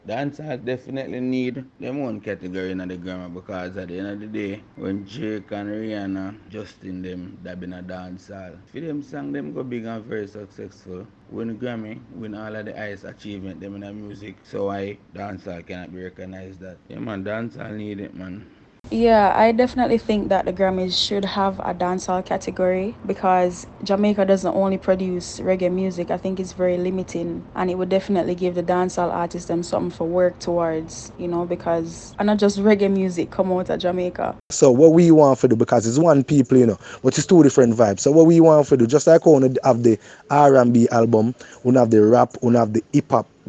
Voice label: VOX POP